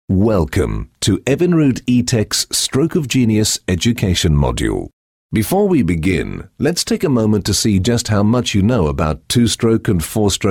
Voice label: Corporate E-Learning